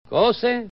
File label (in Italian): "côse", genovese; 'kO:se/ (che cosa?)